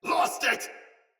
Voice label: Sight Lost Shout Soldier Sword Agent Male; Battle; Sinecure Melee